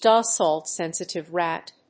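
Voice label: "Dahl salt-sensitive rat", English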